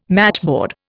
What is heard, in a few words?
"matchboard", Indian